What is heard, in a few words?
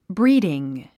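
"breeding", English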